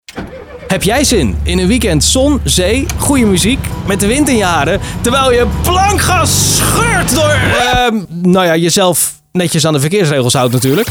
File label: cabrio commercial